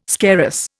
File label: "scarus", Indian